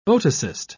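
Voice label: "otocyst", British English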